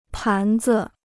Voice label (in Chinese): "盘子", Chinese